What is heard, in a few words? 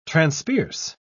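"transpierce", British English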